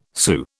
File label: "Sioux", British